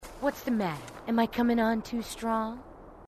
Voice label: female marine, marine tough